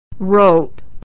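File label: "rote", American English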